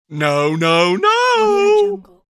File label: cartoon comical no no no